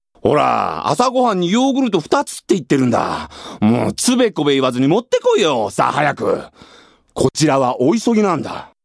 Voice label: male voice